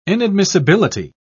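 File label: "inadmissibility", British English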